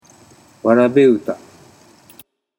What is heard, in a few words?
"warabeuta", Japanese